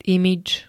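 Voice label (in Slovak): "imidž", Slovenské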